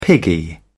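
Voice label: "piggy", British English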